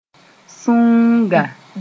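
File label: "sũuga", Mooré; plant sp climbing up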